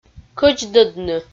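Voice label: "кӧдздӧдны", Komi-Zyrian, female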